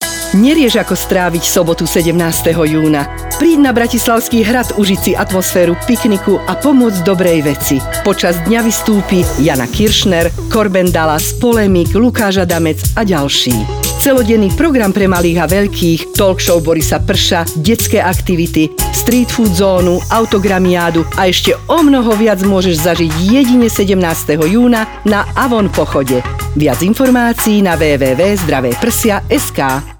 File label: Radio spot